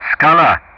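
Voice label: "Skala", English; Ka-50; Player Callsign; DCS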